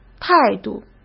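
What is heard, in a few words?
"态度", Mandarin Chinese; hsk; chinese-flashcards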